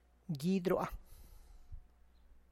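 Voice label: my lips, Tlacochahuaya Zapotec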